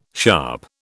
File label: "sharp", British